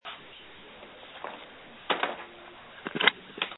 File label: call recording